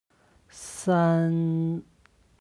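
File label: "san", Chinese; 三; HSK Level One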